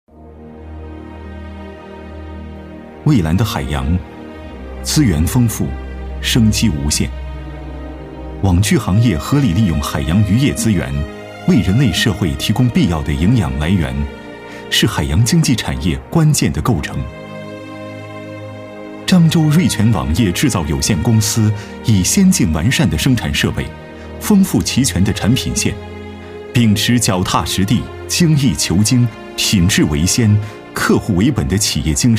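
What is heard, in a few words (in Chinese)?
男【宣传】; 漳州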